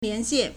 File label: "lian2xian4", Chinese